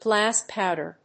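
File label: "Glass powder", English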